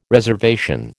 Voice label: "reservation", American